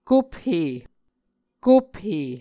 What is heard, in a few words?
"kophe", Muscogee